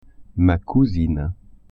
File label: "cousine", French